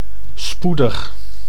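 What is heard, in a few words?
"spoedig", Dutch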